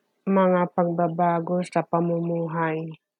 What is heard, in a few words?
Tagalog; lifestyle changes - mga pagbabago sa pamumuhay